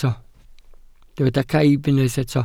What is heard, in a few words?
"caib", Welsh